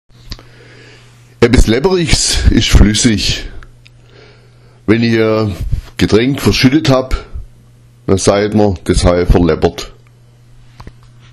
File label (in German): "lepperich", schwaebisch; Etwas lepperichs ist flüssig, etwas veleppera ist etwas verschütten.